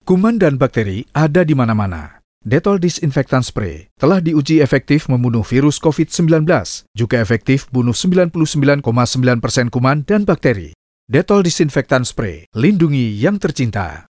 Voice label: Bahasa Indonesia; Dettol

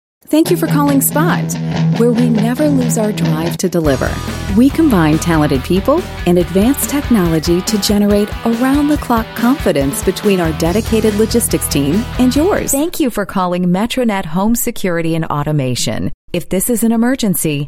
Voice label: US English IVR

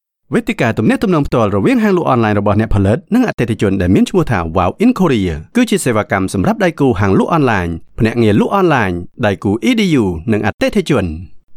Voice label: Khmer Male Voice

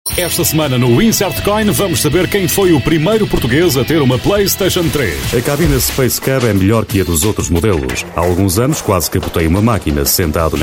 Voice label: Portuguese

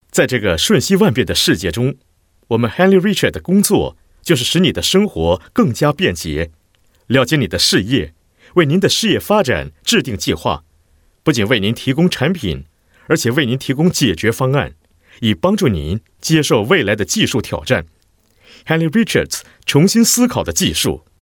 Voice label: Mandarin Corp Ext, Male; China

